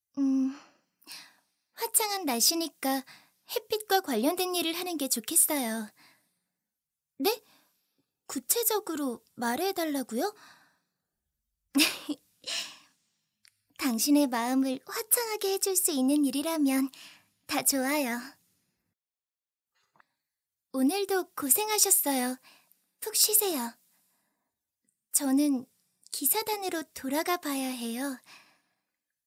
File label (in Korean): 10대 수줍은 친절한